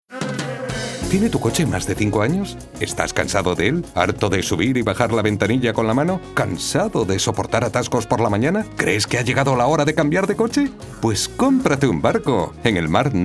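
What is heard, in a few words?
spanish promos, Male; professional Spanish voice actor since 1990; Dubbing actor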